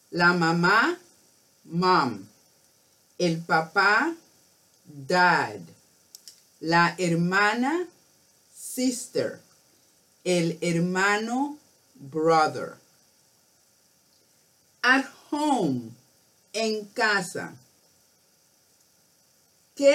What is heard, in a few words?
Having Fun Outdoors Spanish; 3-5 Years